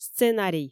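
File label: "сценарий", Komi, female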